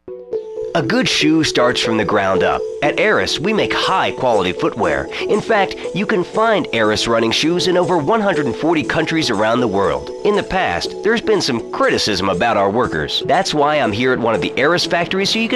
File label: commercial; aeris; GTA3 Radio Stations - Commercials